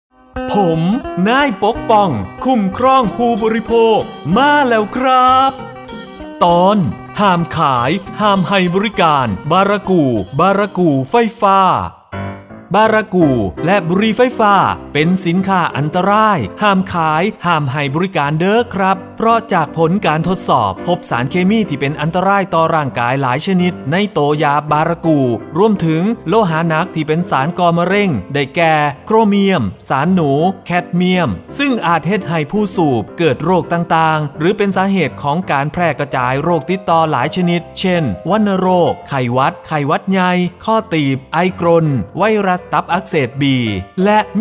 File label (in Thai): สปอตวิทยุ; ภาคตะวันออกเฉียงเหนือ; สื่อประชาสัมพันธ์; สคบ.; สำนักงานคณะกรรมการคุ้มครองผู้บริโภค